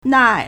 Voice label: "nai4", Chinese

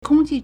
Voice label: "kong1qi4", Chinese

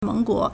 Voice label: "meng2guo2", Chinese

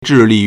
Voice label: "zhi4 li4", Chinese

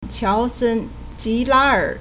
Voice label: "qiao2 sen1 ji2 la1 er3", Chinese

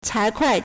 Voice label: "cai2kuai4", Chinese

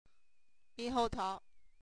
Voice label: "mihoutao", Chinese

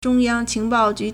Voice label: "zhong1 yang1 qing2 bao4 ju4", Chinese

